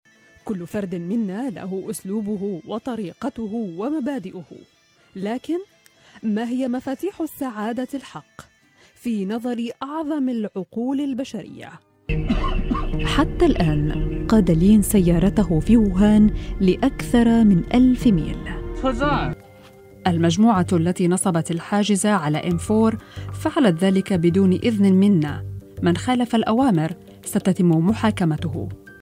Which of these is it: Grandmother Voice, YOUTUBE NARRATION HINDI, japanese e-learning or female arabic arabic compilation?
female arabic arabic compilation